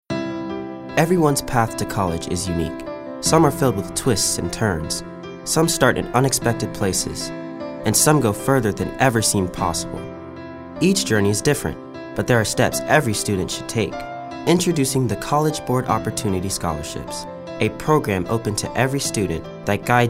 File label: warm